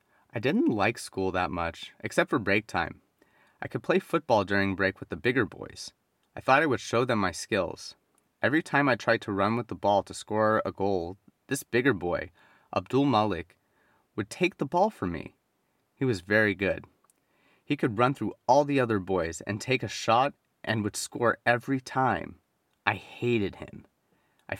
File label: Reading